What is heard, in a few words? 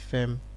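"fem", Nigerian Pidgin, male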